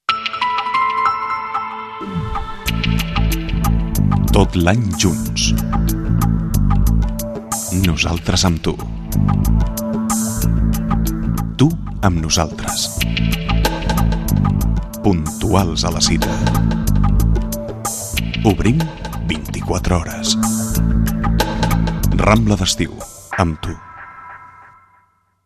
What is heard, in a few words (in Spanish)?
Publicidad Radio voces comerciales musica; Rambla D´ estiu